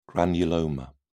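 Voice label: "granuloma", British English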